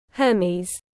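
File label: "Hermes", British English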